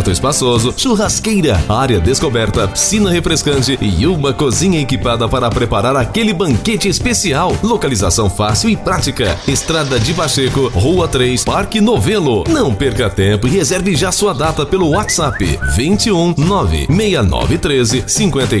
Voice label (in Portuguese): LOCUTOR Salao de Festa